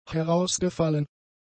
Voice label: "herausgefallen", German; adjectives